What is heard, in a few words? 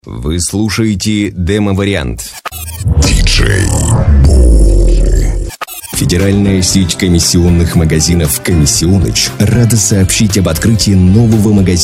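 Russian, male; lektoring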